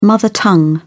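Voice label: "mother tongue", British English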